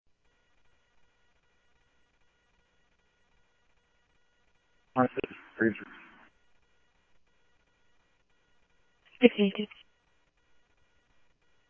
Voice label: scanner audio trunk